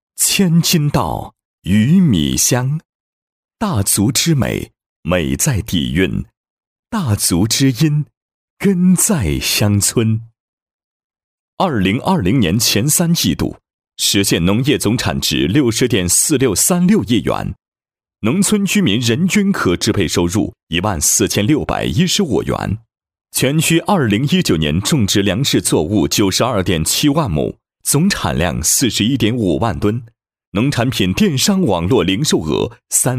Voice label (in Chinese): 男 政府专题 大气稳重; 农委